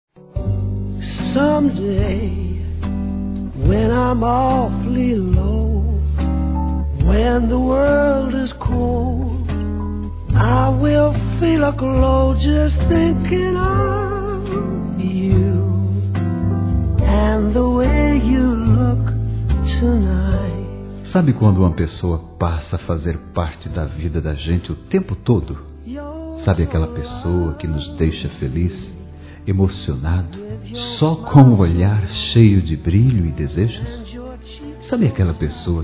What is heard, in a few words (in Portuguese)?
Você é especial geral, masc; Telemensagens; Conquistas Cantadas paqueras; Telemensagens 2017